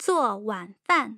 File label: zuo wan fan, tone pairs